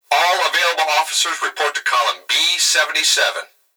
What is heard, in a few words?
Emergency Human Male Voice Plant Security Dispatch All Available Officers Report To Column; emergency effects